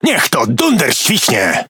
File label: Speech Quick Commands Caramba, PL; World Of Warships